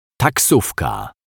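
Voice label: "taksowka", Polish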